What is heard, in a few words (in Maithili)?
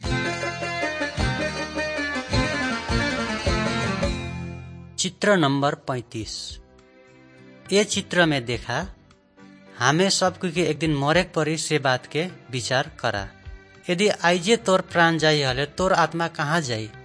good news maithili, nt drama